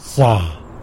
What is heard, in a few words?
"za", tlacolula; grease